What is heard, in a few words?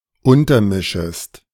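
"untermischest", German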